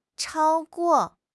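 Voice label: "chao1 guo4", Mandarin Chinese; HSK; HSK4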